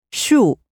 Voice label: "tree", chinese mandarin